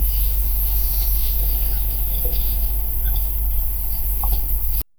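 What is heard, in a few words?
sound barely audible whisper; food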